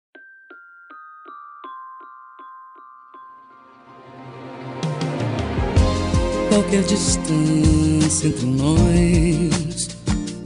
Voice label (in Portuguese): crise masc, Telemensagem Relacionamento Crise – Voz Masculina